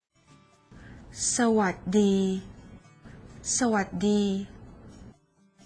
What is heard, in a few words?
Hello in Thailand; Multiculturalism